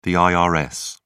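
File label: "irs", British English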